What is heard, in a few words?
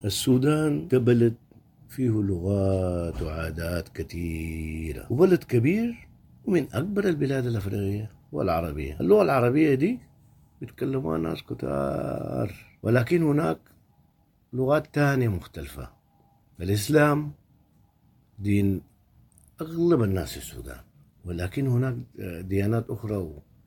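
Arabic, general, Sudanese; GoLocalise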